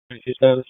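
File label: Raleigh Police Southeast District Dispatch; wake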